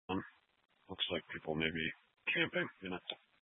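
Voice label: Sheriff Dispatch, Scanner audio recording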